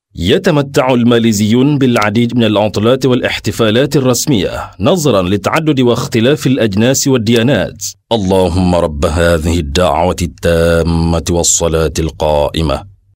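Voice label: arabic male voice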